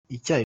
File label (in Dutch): "icyayi", Kinyarwanda; thee-in-andere-talen